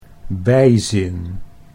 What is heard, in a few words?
"bijzin", Dutch